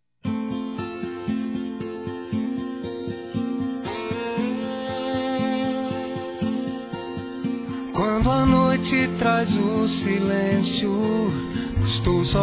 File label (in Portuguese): Perdão Romântico Masculino; Telemensagens; Reconciliação